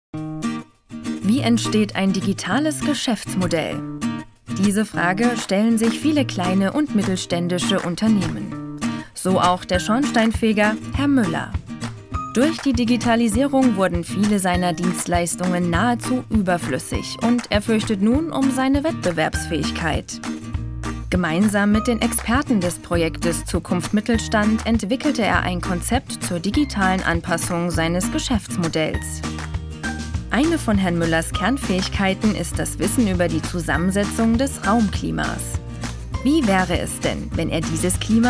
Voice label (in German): Imagefilm